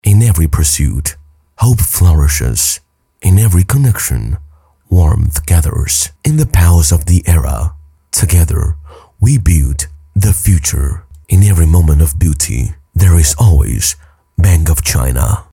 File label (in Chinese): 男 英文宣传片